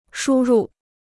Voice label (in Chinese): "输入", Chinese